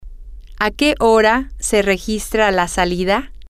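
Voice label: when is the check out time, spanish, female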